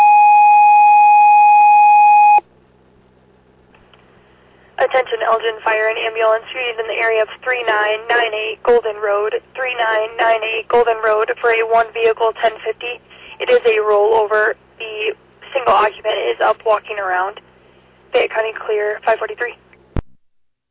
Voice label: Fire, dispatch; Elgin